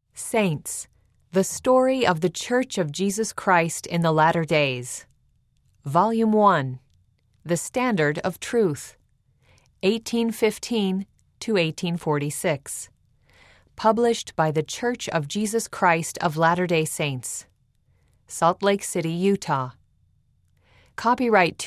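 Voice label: title page female voice, english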